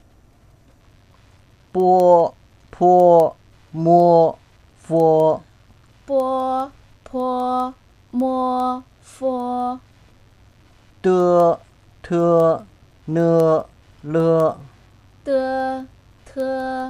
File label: pinyin, chinese